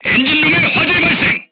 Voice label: voice message fire in tank, crew tanks korean